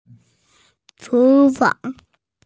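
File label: "kitchen", Mandarin, hearing-impaired; chu2fang2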